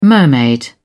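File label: "mermaid", British English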